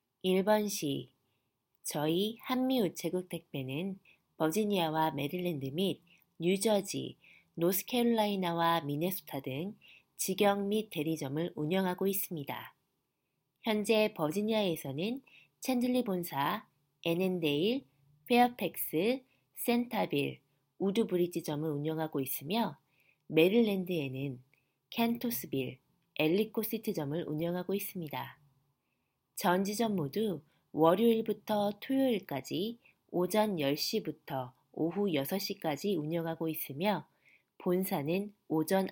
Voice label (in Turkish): Korece Kadin, seslendirme; kadin sesler